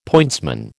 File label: "pointsman", American